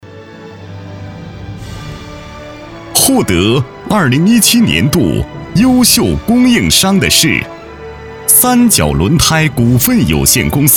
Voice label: 高端男专题颁奖大气有感情

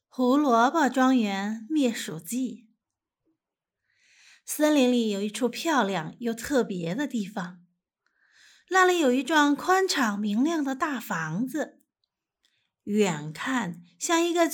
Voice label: 儿童读物; 胡萝卜庄园灭鼠记

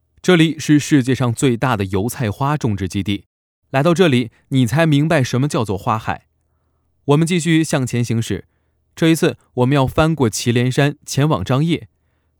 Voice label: 男, 青年, 普通话, 风格温暖青年正派

